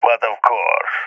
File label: agree, balkan; balkan epic